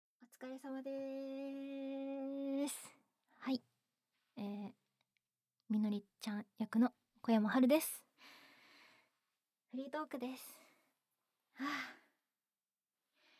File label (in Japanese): フリートーク